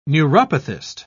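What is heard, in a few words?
"neuropathist", British English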